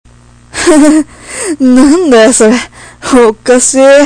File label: funny m